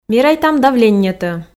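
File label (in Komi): мерайтам давленньӧтӧ, Komi, female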